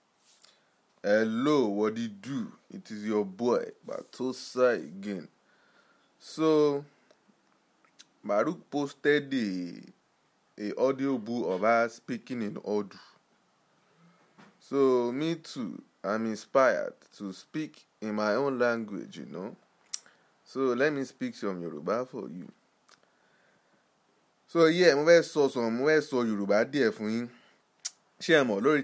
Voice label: speaking yoruba naija accent

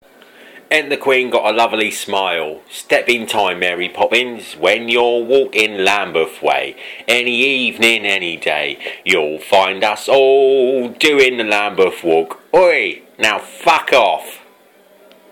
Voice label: Cockney

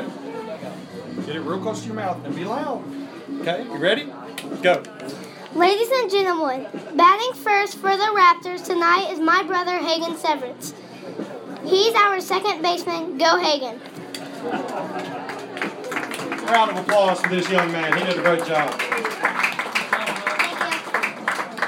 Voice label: announcer in training

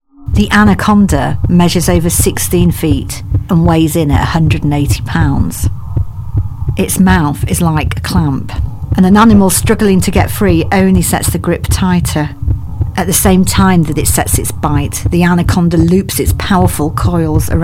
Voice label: sample voiceover documentary

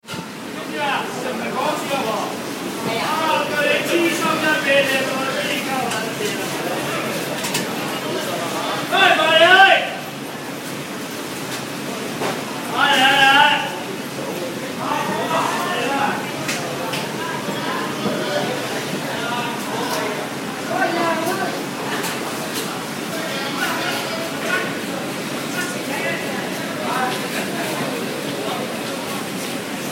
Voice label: market hawker s call; shek kip mei estate

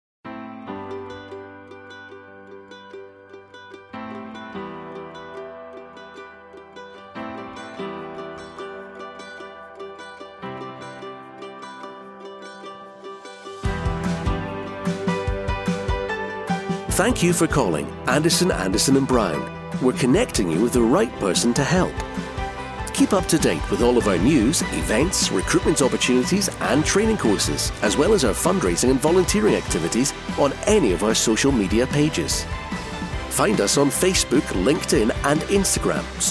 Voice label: on hold messaging message; anderson anderson and brown

